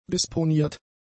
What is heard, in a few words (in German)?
"disponiert", German; adjectives